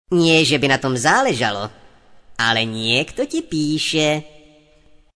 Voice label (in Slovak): sms hlásky cz